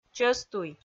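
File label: "чӧстуй", Komi, female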